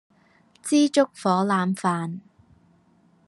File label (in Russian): Голоса Гонконгский; commonvoice